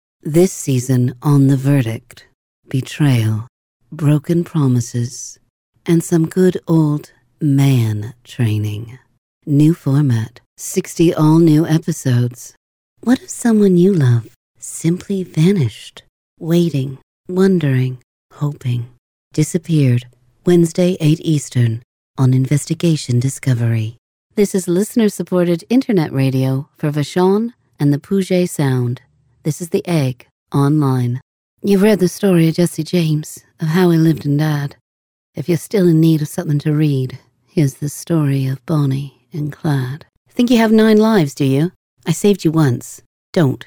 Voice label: Trailers

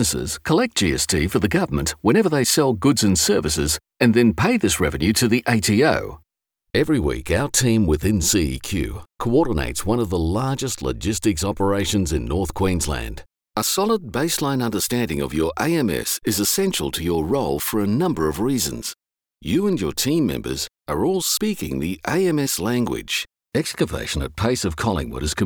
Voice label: Australia Corporate

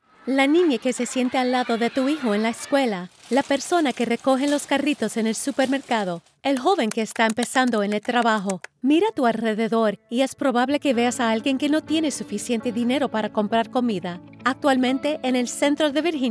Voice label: Commercial in Spanish

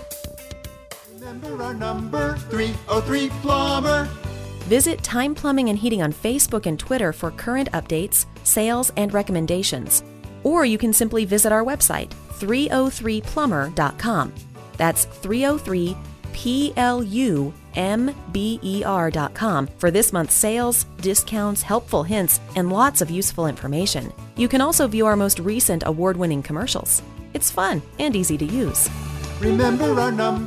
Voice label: On Hold

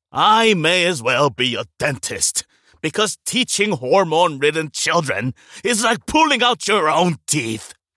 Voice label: American Character

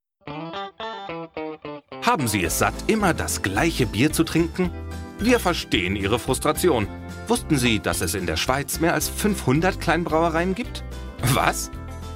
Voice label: German; Smart Beer

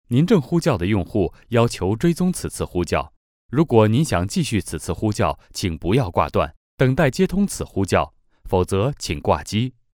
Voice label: Mandarin IVR

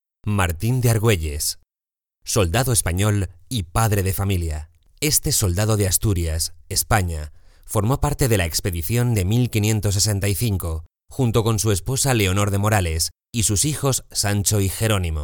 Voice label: Web Narration Spanish

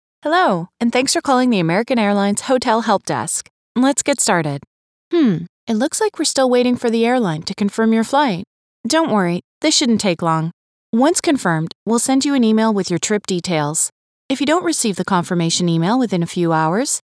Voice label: IVR and OHM

